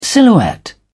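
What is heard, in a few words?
"silhouette", British English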